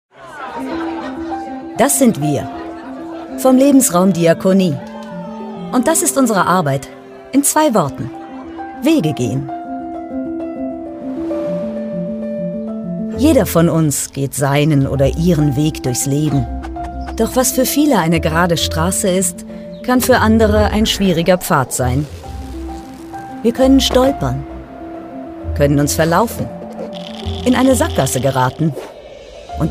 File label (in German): imagefilm kurz; diakonie